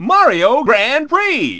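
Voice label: announcer, Japanese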